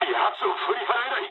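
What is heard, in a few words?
aircraft damaged mood low, speech japanese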